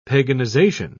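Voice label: "paganization", British English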